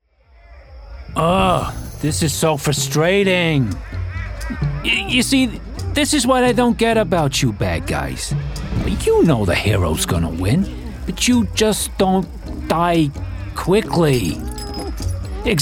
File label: video game reel